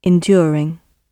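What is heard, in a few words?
"enduring", British English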